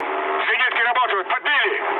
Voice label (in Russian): damaged aa, mood low, speech ru; War Thunder